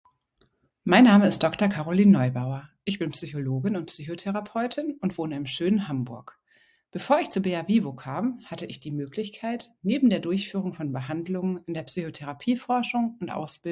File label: audio message